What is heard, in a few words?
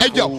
"hey yo", voice; ooh ahh